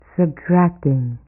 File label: Announce Voice Subtracting; BlastChamber PSX